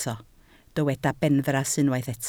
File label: "benfras", Welsh